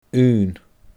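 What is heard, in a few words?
"aon", Scottish Gaelic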